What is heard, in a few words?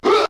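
"huh", vocal sample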